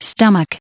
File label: "stomach", en-us